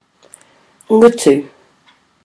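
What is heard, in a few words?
"ngutu", Māori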